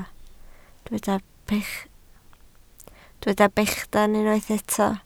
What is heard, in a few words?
"bechan", Welsh